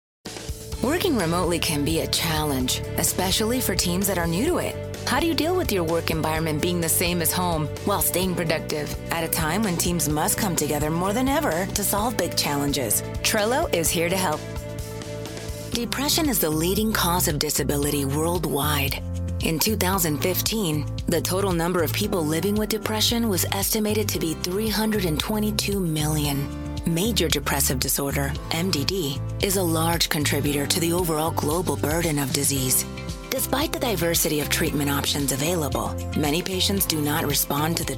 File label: Corporate E learning English